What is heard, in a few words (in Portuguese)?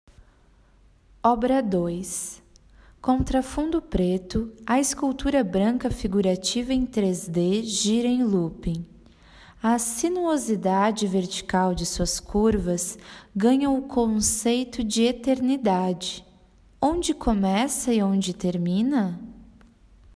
Audiodescricao; Exposição; Galeria Virtual